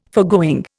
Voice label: "foregoing", Indian